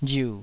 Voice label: "riu3", taiwanese